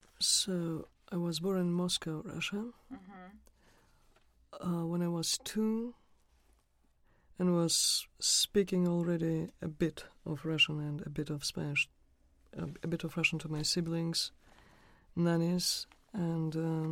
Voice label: Immersion Russia Moscow WF Phd linguist; Slavic